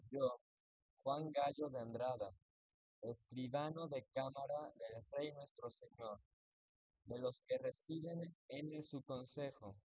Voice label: male inst sim; SiSEC08; SiSEC underdetermined